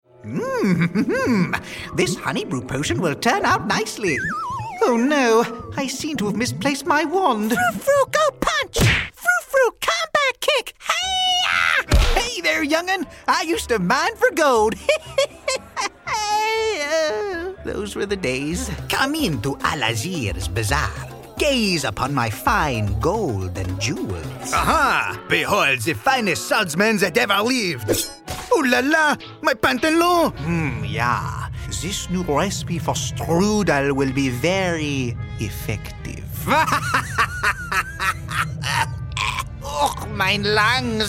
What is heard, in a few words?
Animation Voice